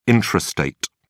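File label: "intrastate", English, uk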